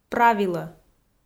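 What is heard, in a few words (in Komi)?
"правилӧ" Komi, female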